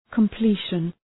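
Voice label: "completion", English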